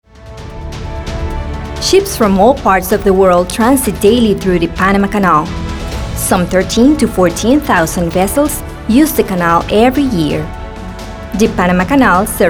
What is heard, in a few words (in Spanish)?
documental ingles